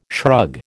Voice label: "shrug", American

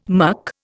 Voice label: "muck", Indian

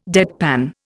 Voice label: "deadpan", Indian

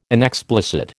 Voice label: "inexplicit", American

